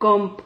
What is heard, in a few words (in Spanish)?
"comp", Silabas